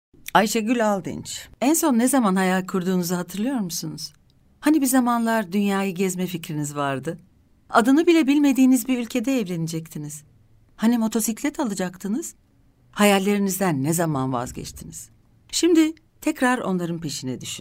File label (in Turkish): turkce kadin